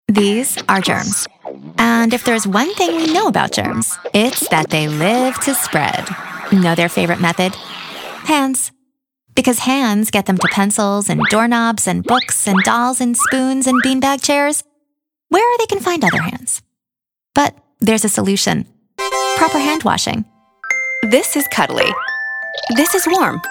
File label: narration, female